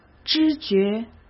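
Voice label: "知觉", Mandarin Chinese; chinese-flashcards; hsk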